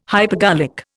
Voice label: "hypergolic", Indian